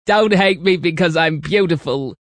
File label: Dont Hate Cuz Beaut, Attitude, Male